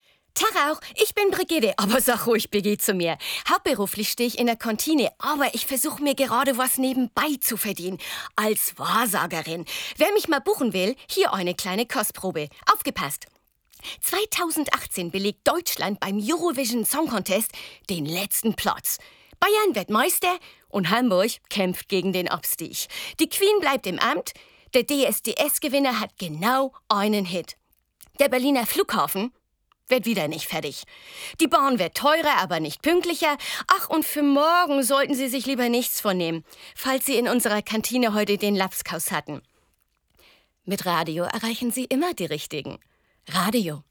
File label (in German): WERBUNG hamburgerisch, lustig